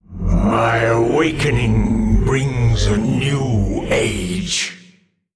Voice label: Male Old God Emote Greetings

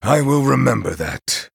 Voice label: Male Night Elf, Thanks

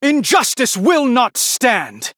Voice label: Male Human Attack; Anduin

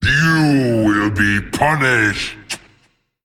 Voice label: Male Colossus Attack

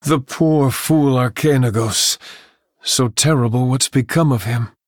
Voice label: Male Human; Medivh

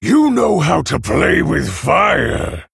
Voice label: Male Orc Ogre Well Played

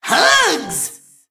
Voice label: Male Mech Attack

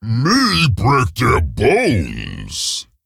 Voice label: Ogre Emote

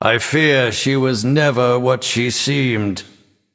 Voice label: Male Nightborne

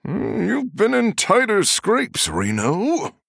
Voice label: Male Human Thinking

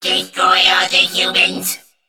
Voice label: Male Mech

